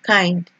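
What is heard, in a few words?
"kind", Nigerian Pidgin, female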